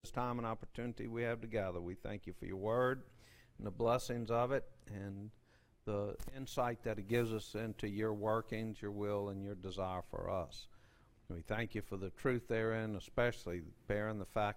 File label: Preaching